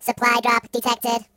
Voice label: Reinforcements, Robot